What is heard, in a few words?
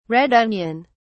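"red onion", English, British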